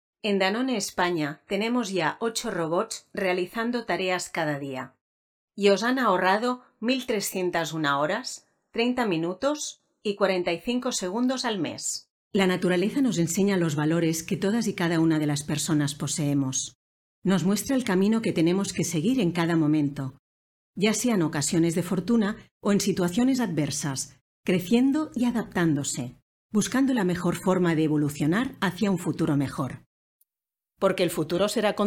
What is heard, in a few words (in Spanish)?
corporativo castellano